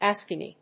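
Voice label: "a spiny", English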